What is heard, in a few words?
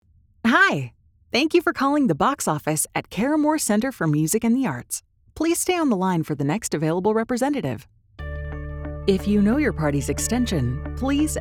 Phone System, female voices